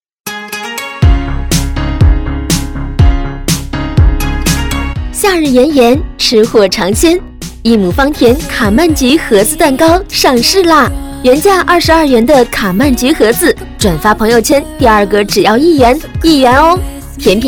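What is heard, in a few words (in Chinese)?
女-促销广告【蛋糕】青春甜美; 一亩芳田蛋糕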